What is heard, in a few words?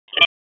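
Police Spec Ops Tac, radio; Raleigh; Spec Ops Tac 4; wake; p25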